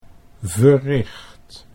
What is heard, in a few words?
"verricht", Dutch